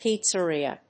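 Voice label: "pizzeria", English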